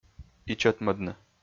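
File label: "ичӧтмӧдны", Komi, male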